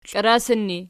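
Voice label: "klarasan" ergative, Archi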